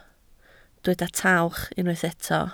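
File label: "tawch" Welsh, spoken word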